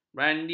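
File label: "brandi", Malay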